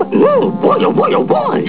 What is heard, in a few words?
boy oh